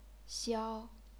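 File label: "xiao", chinese pinyin, first tone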